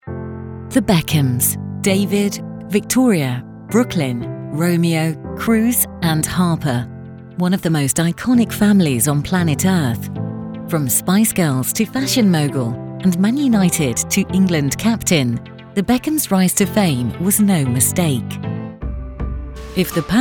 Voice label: Documetary Mix

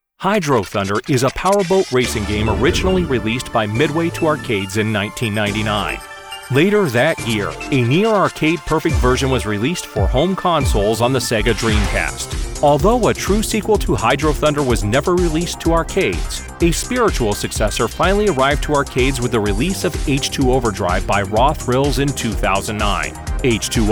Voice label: DOCO

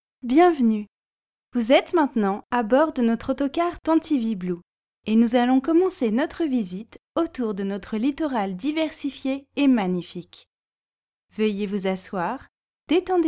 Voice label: Tour guide sample; Jersey

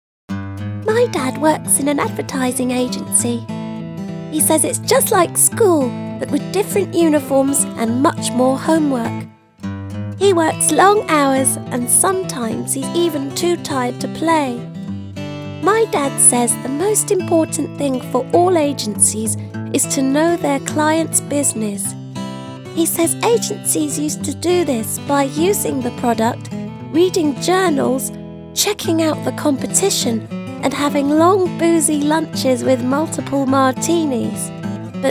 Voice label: Child voice

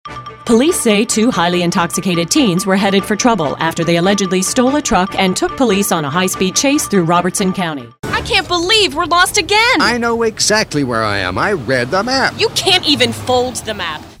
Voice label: Natural Speak

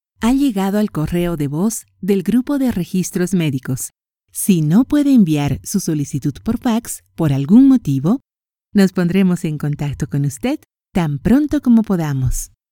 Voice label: internet ad or voice answering machine

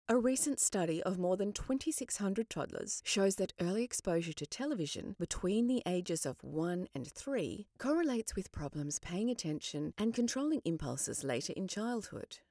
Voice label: tv brain audio book

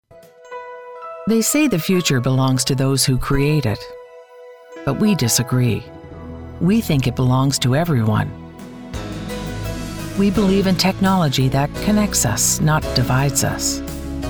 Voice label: narration e learning

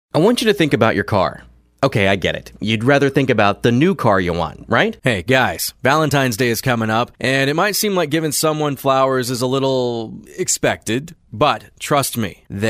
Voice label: Natural

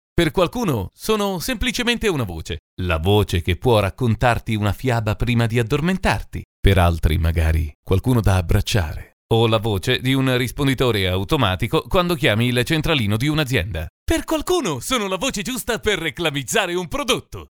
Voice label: Multivoices

